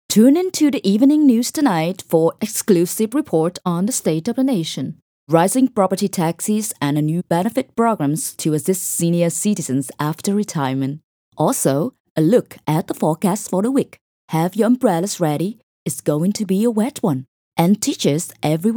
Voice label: Engaging Informative